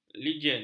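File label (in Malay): "legion", Malay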